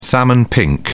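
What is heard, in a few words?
"salmon pink", British English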